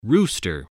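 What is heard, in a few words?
"rooster", English word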